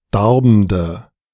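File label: "darbende", German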